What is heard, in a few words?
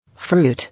"Fruit", English